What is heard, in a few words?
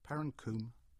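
"Perrancoombe", English; place-names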